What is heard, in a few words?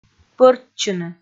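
"пӧрччыны", Komi, female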